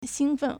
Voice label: "xing1fen4", Chinese